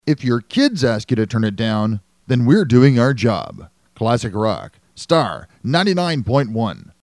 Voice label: sweeper